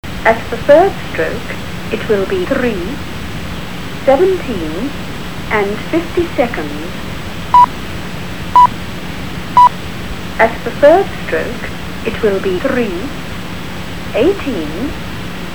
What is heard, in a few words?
old speaking clock